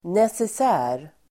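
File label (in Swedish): "necessär", Swedish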